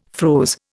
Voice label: "froze", Indian